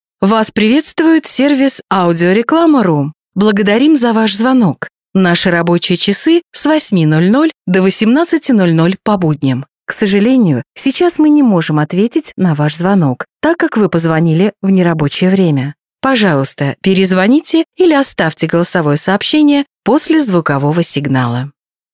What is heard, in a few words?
female ivr